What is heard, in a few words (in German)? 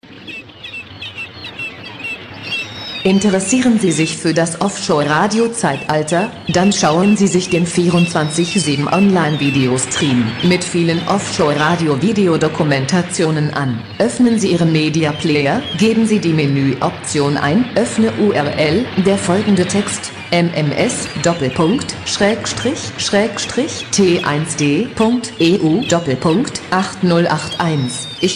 Promo Deutsch; in German; Ohr Radio; OHR VIDEO STREAM